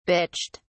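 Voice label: "bitched", British English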